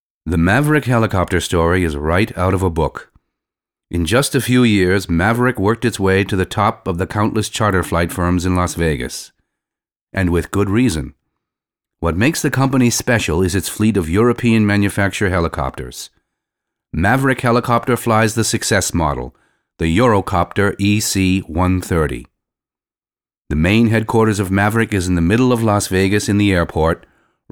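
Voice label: US English Kommentar Freundlich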